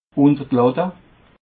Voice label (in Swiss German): "under d latt", alsace